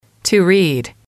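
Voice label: "to read", English, female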